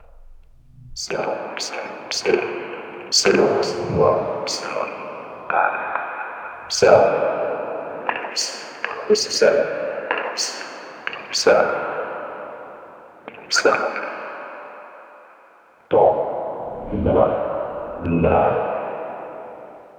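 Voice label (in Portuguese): ressonância grave e analgi, distorted voices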